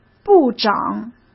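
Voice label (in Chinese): "部长", Mandarin Chinese; hsk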